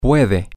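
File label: you can, Spanish, male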